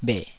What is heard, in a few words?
"bheh3", taiwanese